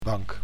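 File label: "bank", Dutch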